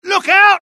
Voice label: alert, dialogue UK; mohaa